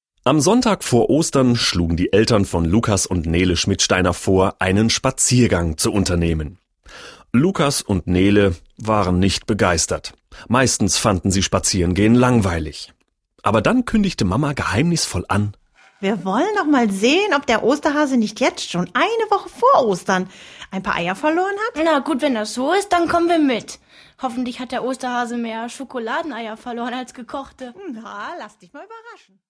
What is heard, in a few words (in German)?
hoerspiel; der schlunz raetsel um das osterei